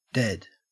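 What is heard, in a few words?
"dead", Australian English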